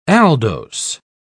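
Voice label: "aldose", British English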